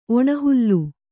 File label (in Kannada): "ಒಣಹುಲ್ಲು", Kannada